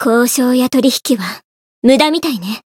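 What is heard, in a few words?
encounter fear, jp; renri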